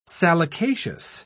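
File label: "salicaceous", British English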